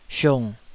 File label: "siung3", Taiwanese